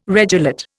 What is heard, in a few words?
"regelate", Indian